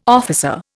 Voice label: "officer", Indian